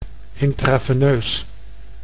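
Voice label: "intraveneus", Dutch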